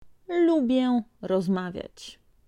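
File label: "lubię rozmawiać", Polish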